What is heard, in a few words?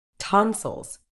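"tonsils", British English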